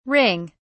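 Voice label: "ring (s)", British English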